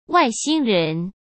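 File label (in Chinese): "外星人", Mandarin Chinese; hsk; chinese flashcards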